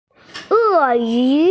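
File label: "eyu", Mandarin Chinese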